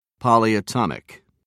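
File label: "polyatomic", British English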